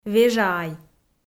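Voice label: "вежаай", Komi, female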